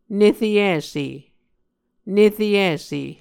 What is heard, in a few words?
"nereyise", Muscogee